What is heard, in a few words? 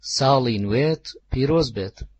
new year, sorani; Kurdish (Sorani); ساڵی ﻧﻮێت ﭘﻴرۆز بێت; saly nwet piroz bet